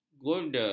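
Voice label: "guilder", Malay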